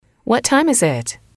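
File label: what time is it, English, female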